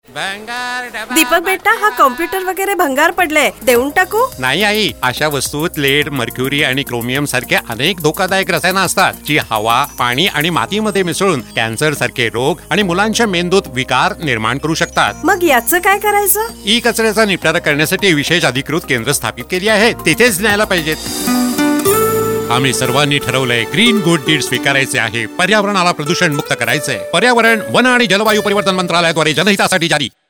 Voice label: Marathi; E-waste

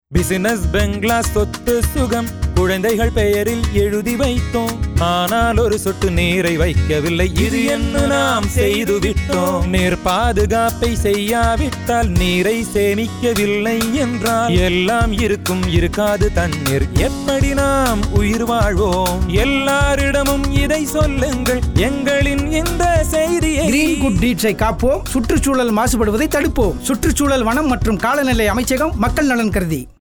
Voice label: water conservation tamil

